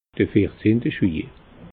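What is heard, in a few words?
"vierzehnt", Alsatian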